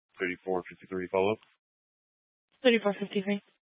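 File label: Police Dispatch, Scanner audio recording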